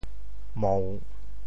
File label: "mou3", Teochew; 潮州; 潮州府城POJ; 调: 帝